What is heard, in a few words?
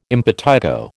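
"impetigo", American English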